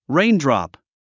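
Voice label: "raindrop", English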